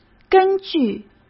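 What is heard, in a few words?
"根据", Mandarin Chinese; hsk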